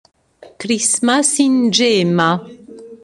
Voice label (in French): swahili; calendrier avent multilingue